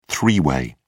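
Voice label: "three-way", British English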